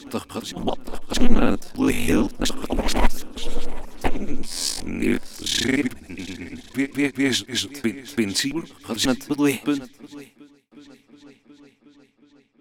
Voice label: Gibberish man norm pitch